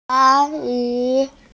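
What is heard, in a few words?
"a1yi2", Mandarin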